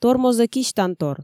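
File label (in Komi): тормозӧ кисьтантор, Komi, female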